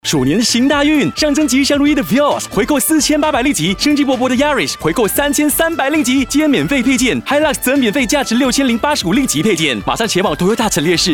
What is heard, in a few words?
MAND Chinese Techno Music; Toyata